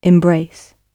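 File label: "embrace", British English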